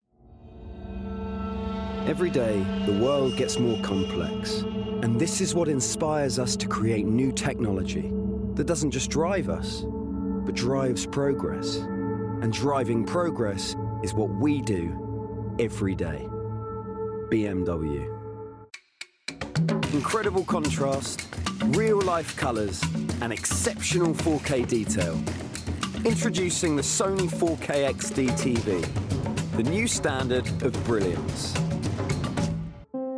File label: Commercial